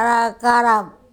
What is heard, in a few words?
"arakarap" back, andamanese